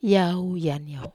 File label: "aniau", wamesa